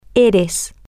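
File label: you are informal permanent, Spanish, female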